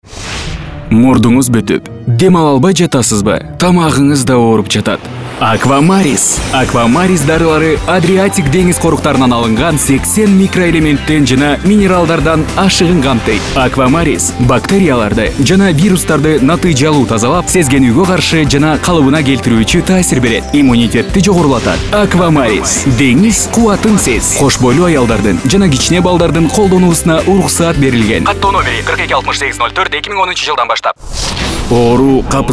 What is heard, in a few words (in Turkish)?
Kirgizca Erkek